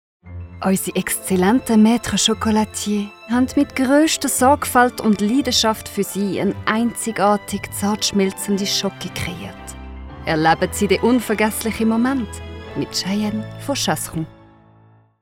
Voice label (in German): Werbung; Chasseron